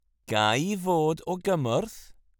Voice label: Can I help you formal